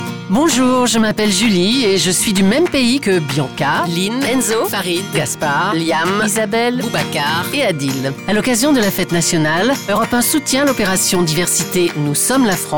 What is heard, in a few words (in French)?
radio pub spot